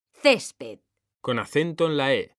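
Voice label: "césped", Spanish, female; mekanta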